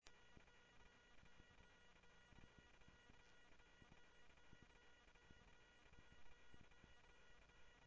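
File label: Lakes Sheriff Lake Patrol, scanner audio trunk; MCSO Lakes 1 SheriffLakePatro; ThompsonPeakScot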